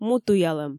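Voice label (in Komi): "му туялӧм", Komi, female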